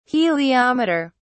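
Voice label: "heliometer", British English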